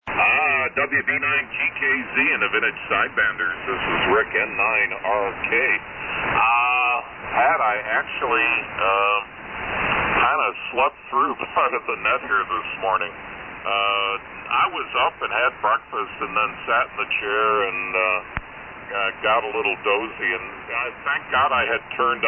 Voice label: Hallicrafters HT-44 - SX-117 D-104 Mic & Dentron Clipperton Amp; Delavan,Wi.